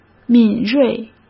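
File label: "敏锐", Mandarin Chinese; chinese-flashcards; hsk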